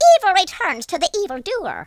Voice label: "i'll get you", Irish; speech